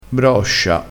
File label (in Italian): "broscia", vocabolario dialettale